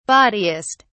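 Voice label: "bawdiest", British English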